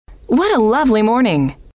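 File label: what A Lovely Morning, female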